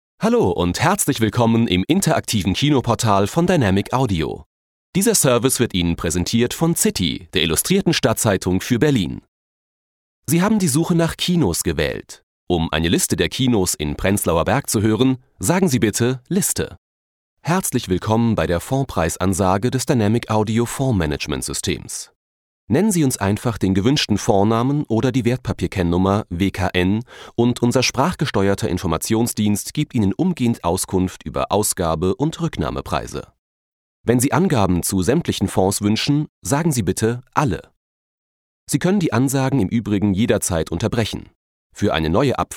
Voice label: Telefon, deutsch maenner; sprecher

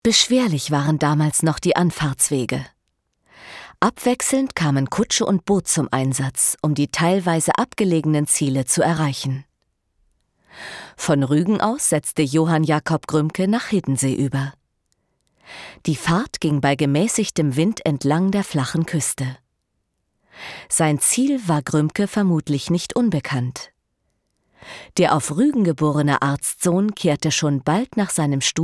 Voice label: audioguide, deutsch frauen; sprecher

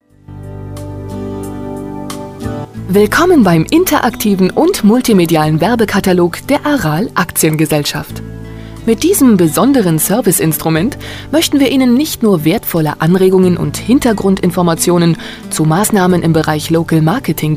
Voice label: de Imagefilm, deutsch frauen; sprecher

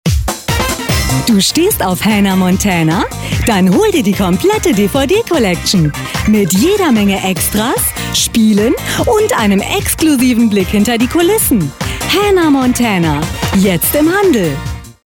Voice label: Werbung

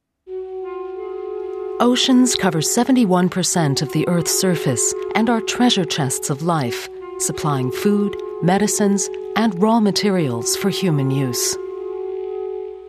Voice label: doku, englisch; sprecher

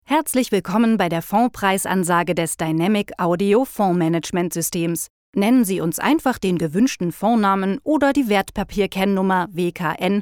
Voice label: telefon, deutsch frauen

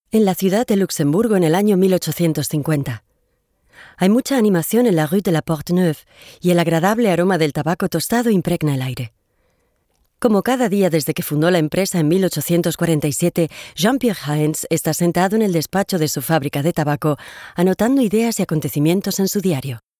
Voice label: erklaer, spanisch

